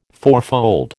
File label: "fourfold", American